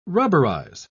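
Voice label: "rubberize", British English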